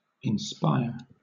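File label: "inspire", British English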